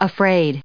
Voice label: "afraid", us